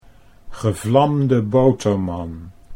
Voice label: "gevlamde boterman", Dutch